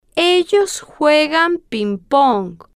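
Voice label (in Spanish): ellos juegan ping pong, Spanish, female, slow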